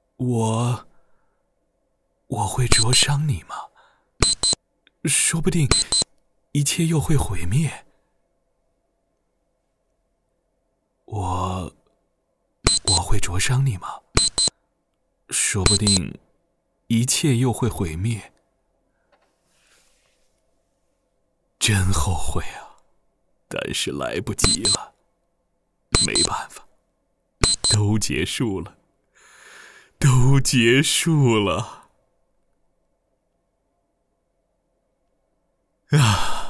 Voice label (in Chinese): 角色中年男试音加噪